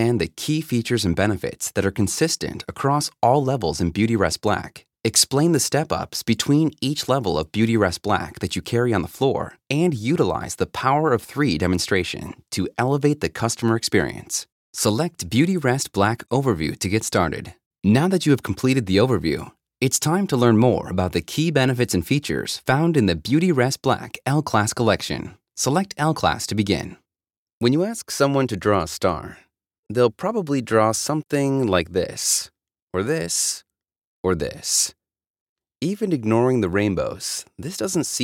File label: E-Learning